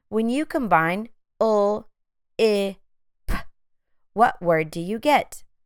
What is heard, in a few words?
l ĭ p phonemic blending